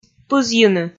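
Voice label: "пызйыны", Komi, female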